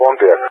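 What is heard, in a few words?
"Pontiac", English speech; CallSign; Allied Flight; DCS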